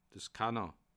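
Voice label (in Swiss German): "scanner", Bas Rhin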